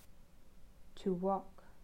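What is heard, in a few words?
"To walk", English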